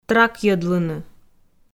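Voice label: "тракйӧдлыны", Komi, female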